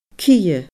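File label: "cidhe", Scottish Gaelic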